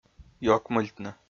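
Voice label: "ёкмыльтны", Komi, male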